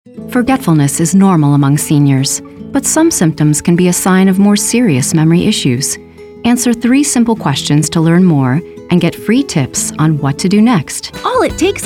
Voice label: 30s 40s Female Commercial

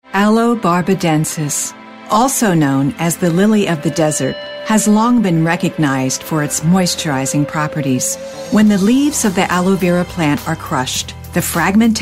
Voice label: 40s 50s Female Narration

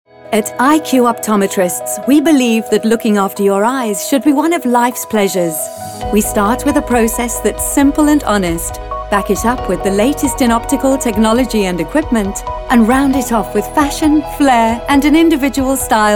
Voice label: 30s40s Female British Narration

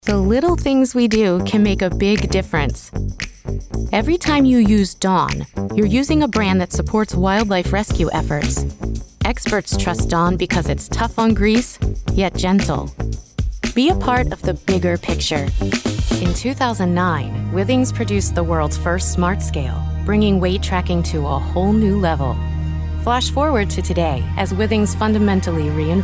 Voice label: 30s Female Narration

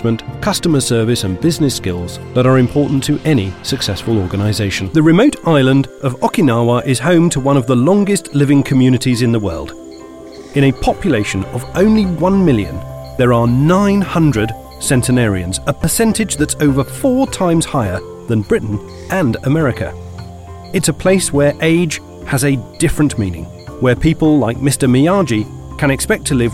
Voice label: 40s Male British Narration; Ethnic Accents

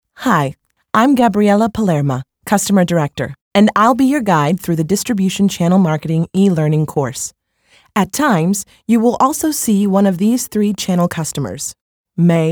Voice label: 20s Female Narration

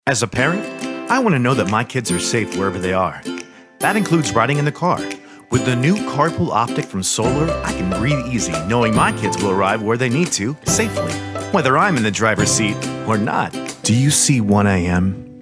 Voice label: 20s30s Male Commercial

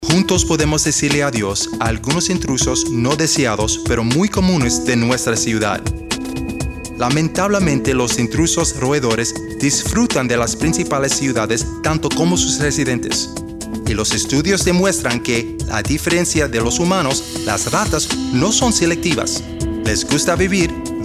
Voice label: Spanish 30s Male Narration; Spanish / Bilingual

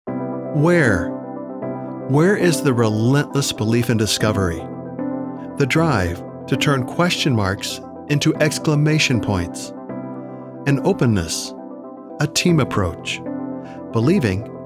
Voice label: 30s40s Male Commercial

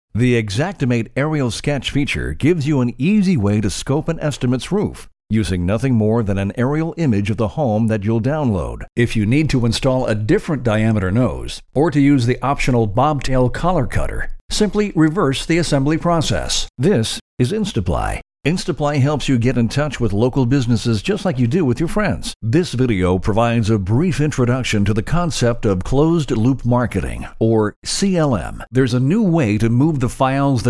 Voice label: 50s eLearning Narration

